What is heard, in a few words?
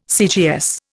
"CGS", Indian